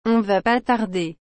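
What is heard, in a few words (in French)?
"On va pas tarder.", French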